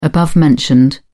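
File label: "above-mentioned", English, British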